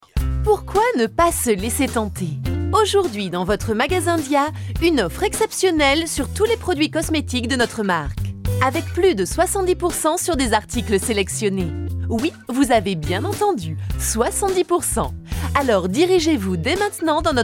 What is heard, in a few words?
locutora francesa french voiceover; French female voice talent